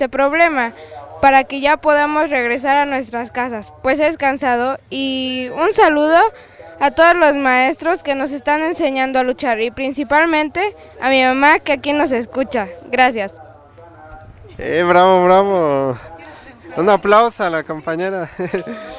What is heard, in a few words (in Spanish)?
niña que no repriman; maestros2013